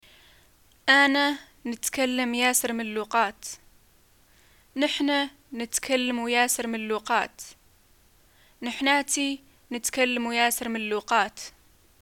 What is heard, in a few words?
speak many langs, arabic, ws